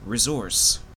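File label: "resource", Canadian English; pronunciations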